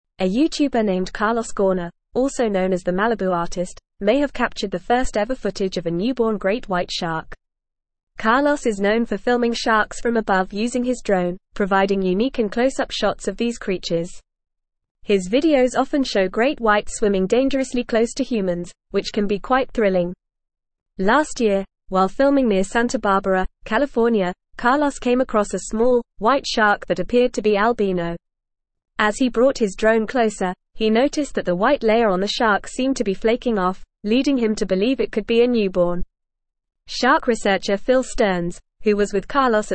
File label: English Newsroom Advanced FAST Reading; TheMalibuArtist captures possible birth of great white shark